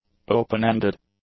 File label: "open-ended", English; adjectives